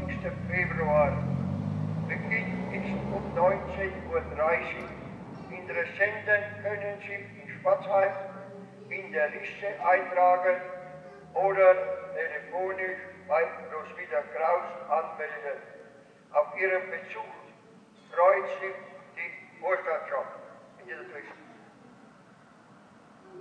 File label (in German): ausruf lustige weinprobe